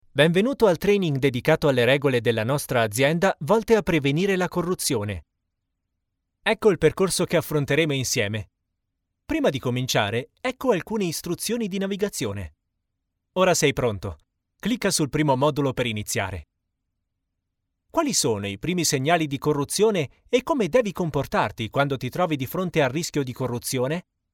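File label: e-learning, Italian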